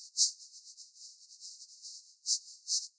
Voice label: vocode